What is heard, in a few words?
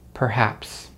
"perhaps", American English; easypronunciation